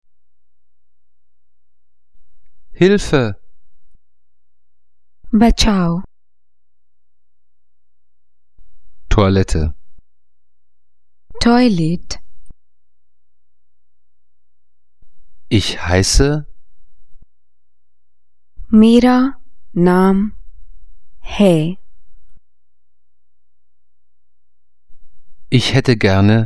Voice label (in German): hindi